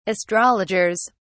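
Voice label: "astrologers", British English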